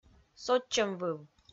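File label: "сотчӧмвыв", Komi, female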